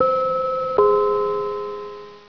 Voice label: announcer; santas workshop